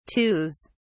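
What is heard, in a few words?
"twos", English, British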